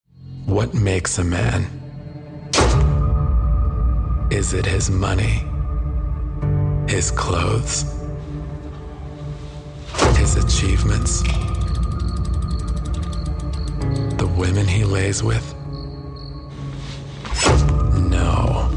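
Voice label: US English, TV Ad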